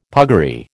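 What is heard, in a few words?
"puggaree", American English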